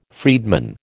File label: "freedman", American